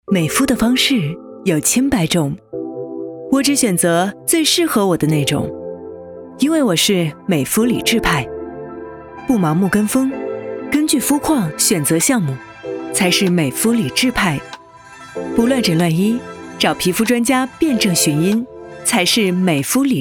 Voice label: 女, 高端广告-美肤-笑

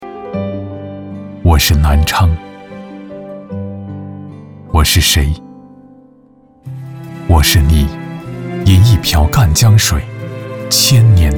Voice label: 男 宣传片走心旁白 走心 舒缓 有感情; 我是南昌

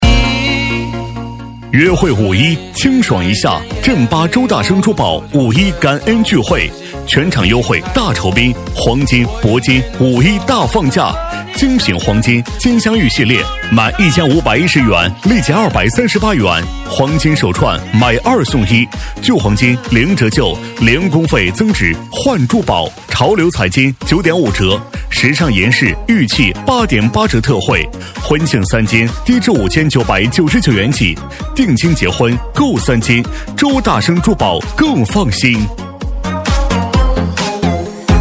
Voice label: 男, 促销 五一-配乐版; 约惠五一

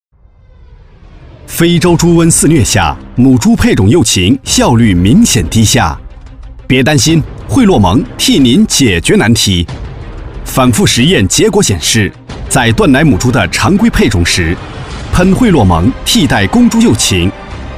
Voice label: C男 促销

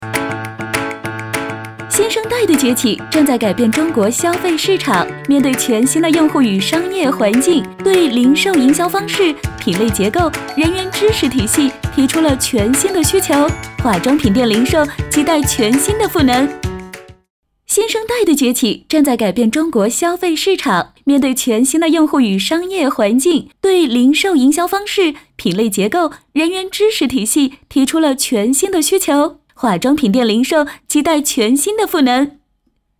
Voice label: 女 零售业大会活跃; 飞碟说MG

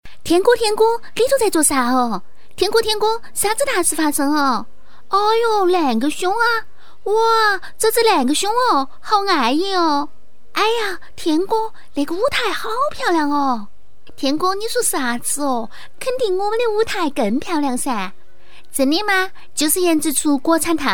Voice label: 女 四川话方言

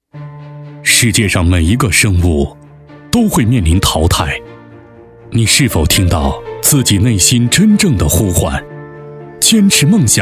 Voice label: 男 大气深沉 生物宣传片

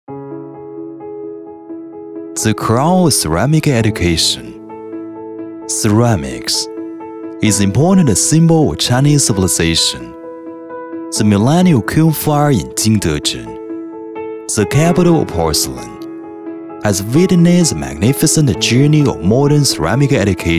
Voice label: W男 英语企业宣传片 大气品质 陶瓷; 黄埔

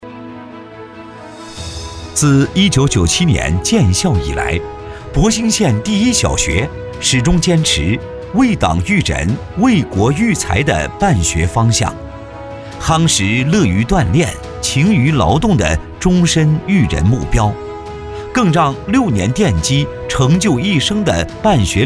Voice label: 男, 宣传片 沉稳 小学; 博兴县第一小学

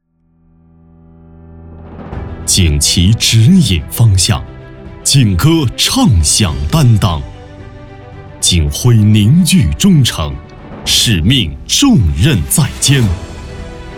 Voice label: 男 宣传片专题片 警察节

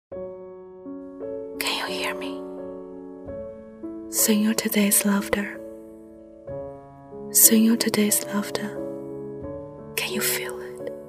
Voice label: 女 英语 高级感 耳边喃语风格

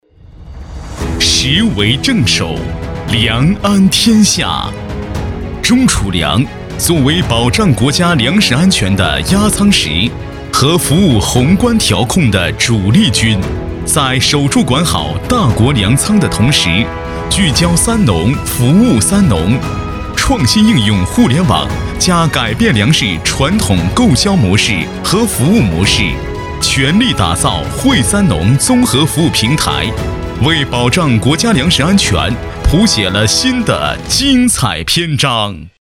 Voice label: 专题片, 男; 宣传中储粮

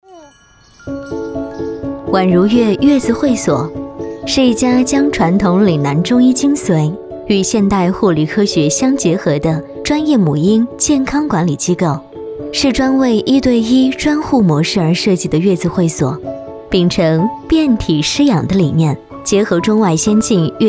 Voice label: B女 专题; 宛如悦月子会所

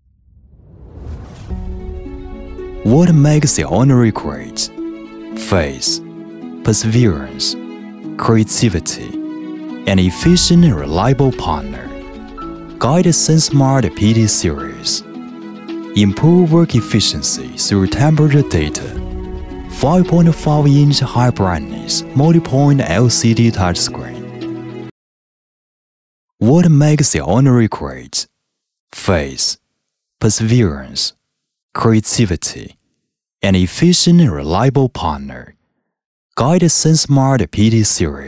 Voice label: W男 英语企业宣传片 大气科技; 高德智感

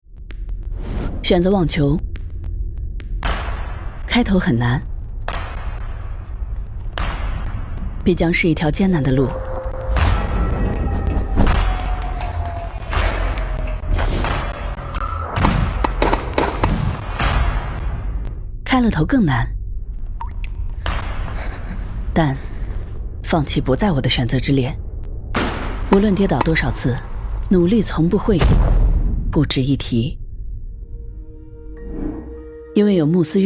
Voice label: 广告 酷飒席梦思床垫网球联合反转篇, 女

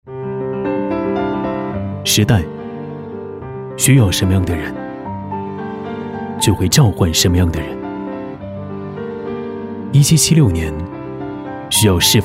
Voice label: 男, 品质-商业广告 招聘; 蔚来招聘

